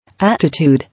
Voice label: "Attitude", English